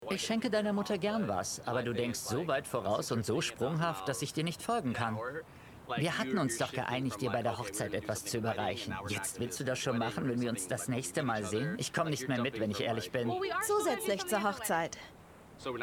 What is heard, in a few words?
Voice Over, Off, Overlay, German; Love is Blind (Voice Over für Ramses Prashad)